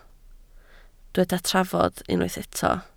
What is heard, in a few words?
"trafod", Welsh